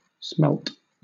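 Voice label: "smelt", British English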